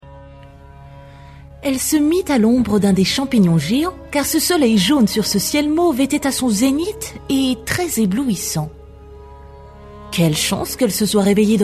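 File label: French, female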